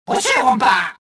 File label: suspicious, rodian